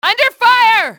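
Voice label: under fire, english, female; XBOX; enemy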